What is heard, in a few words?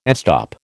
"estop", American